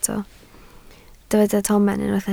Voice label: "tomen", Welsh